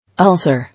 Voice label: "Ulcer", English

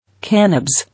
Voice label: "Cannabes", English

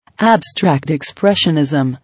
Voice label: "Abstract Expressionism", English

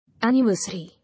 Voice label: "Anniversary", English

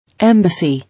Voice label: "Embassy", English

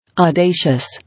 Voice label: "Audacious", English

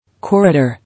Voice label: "Corridor", English

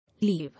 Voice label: "Leave", English

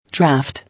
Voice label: "Draft" English

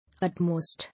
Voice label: "Utmost", English

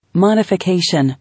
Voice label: "Modification", English

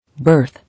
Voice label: "Birth", English

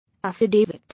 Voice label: "Affidavit", English

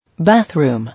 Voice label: "Bathroom", English

